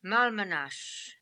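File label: "Malmanash", pronunciations; Fourth Way; Beelzebub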